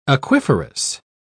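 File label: "aquiferous", British English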